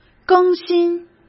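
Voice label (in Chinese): "更新", Mandarin Chinese; chinese-flashcards; hsk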